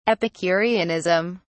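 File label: "epicureanism", British English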